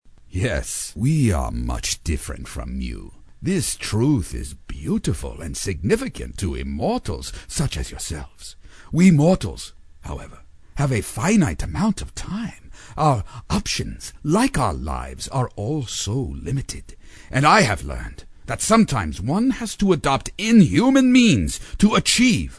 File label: Old & Shrewd; ADVISOR